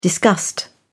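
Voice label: "disgust", British English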